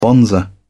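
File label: "bonzer", British English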